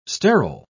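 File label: "sterol", British English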